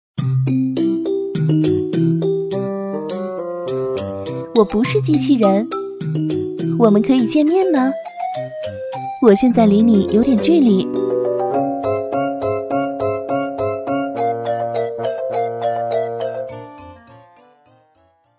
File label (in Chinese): 女8-角色-游戏角色女声